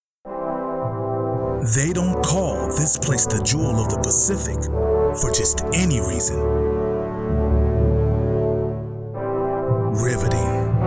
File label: promo